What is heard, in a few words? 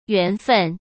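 "缘分", Mandarin Chinese; chinese-flashcards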